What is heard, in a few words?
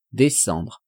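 "descendre", French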